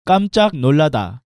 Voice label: "Kkamjjak nollada", Korean